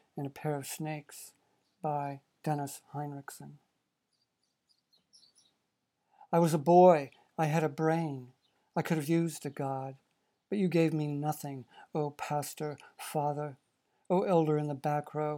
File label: Narration Vocal driven mod